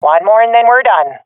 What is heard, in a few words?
enemies left one, swat fem; vo; Counter Strike Model Pack; CS:GO